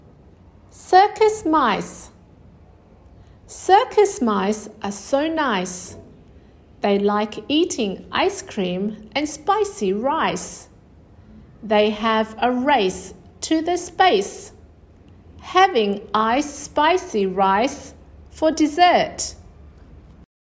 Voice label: c chant, english phonics